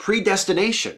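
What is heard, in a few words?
"predestination", US English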